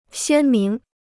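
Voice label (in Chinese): "鲜明" Chinese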